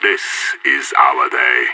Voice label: radio lock n load; idf